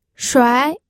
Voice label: "shuai2", pinyin